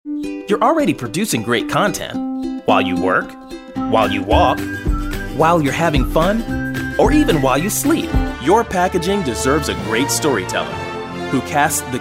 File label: Narration